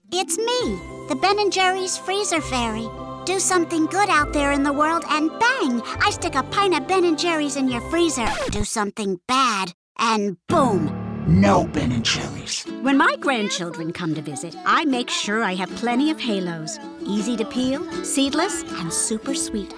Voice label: Character Voices

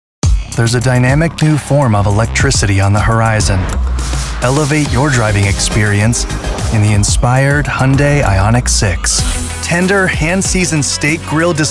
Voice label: Commercials

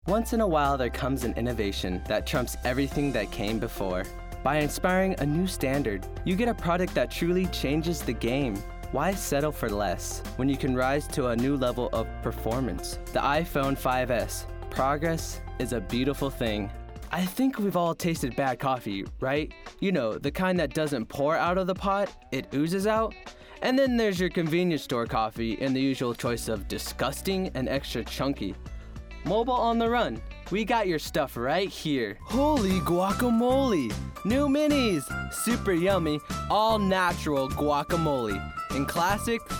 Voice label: Commercials

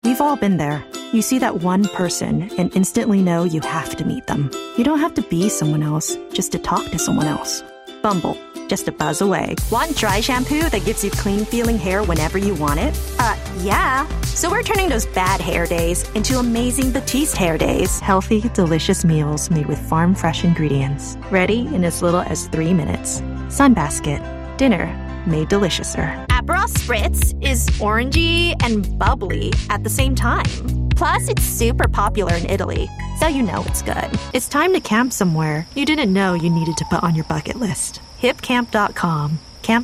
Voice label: Commercial

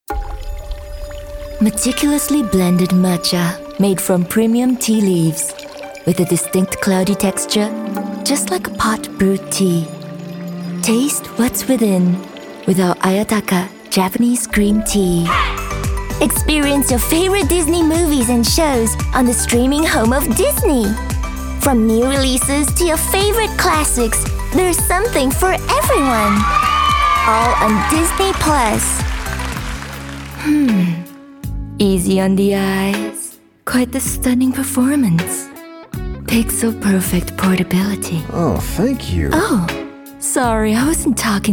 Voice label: Commercial, Mono

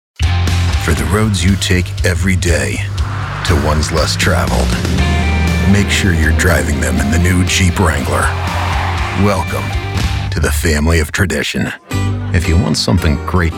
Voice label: Commercials Mono

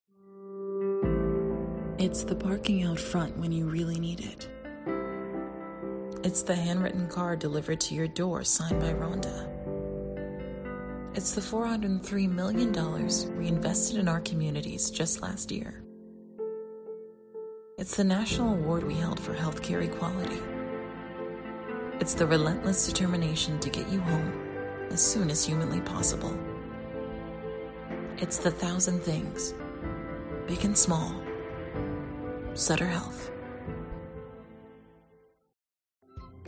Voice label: commercial copy

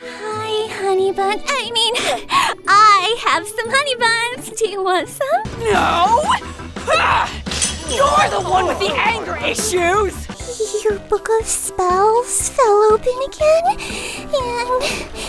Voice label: animation

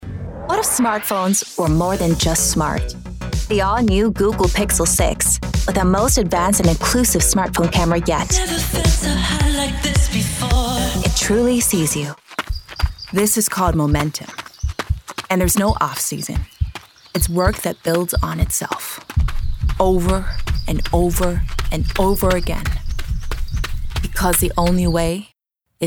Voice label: commercial voiceover

